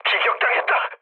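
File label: Korean aircraft destroyed mood low; War Thunder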